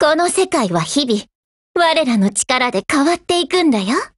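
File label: HELLO, Japanese; GF Alarm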